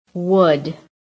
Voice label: "WOOD", american

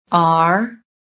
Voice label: "ARE", PHONETIC

